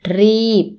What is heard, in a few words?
hurry, Thai